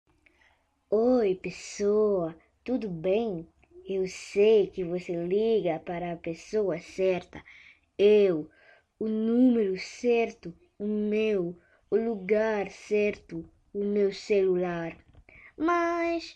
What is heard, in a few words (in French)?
repondeur